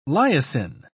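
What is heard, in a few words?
"lysin", English, British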